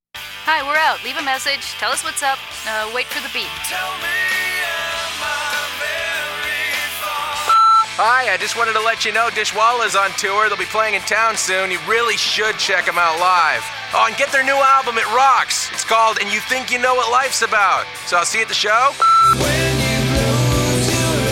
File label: Radio Commercials; 1998